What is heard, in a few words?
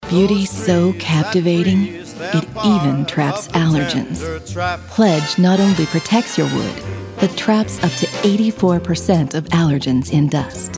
husky lower range provocative inviting believable; pledge